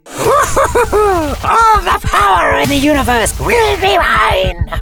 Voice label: Animation Maniacal Tyrant